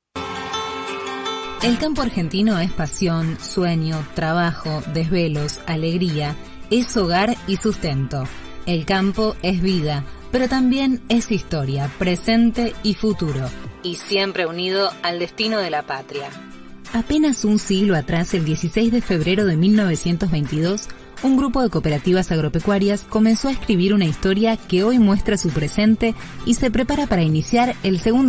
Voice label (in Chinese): 西班牙女声